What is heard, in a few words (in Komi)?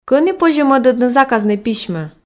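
кӧні позьӧ мӧдӧдны заказнӧй письмӧ, Komi, female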